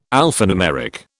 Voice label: "alphanumeric", British

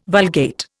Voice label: "Vulgate", Indian

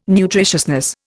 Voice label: "nutritiousness", Indian

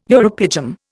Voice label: "uropygium", Indian

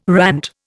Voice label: "rant", Indian

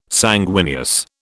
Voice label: "sanguineous", British

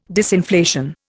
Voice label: "disinflation", Indian

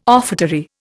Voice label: "offertory", Indian

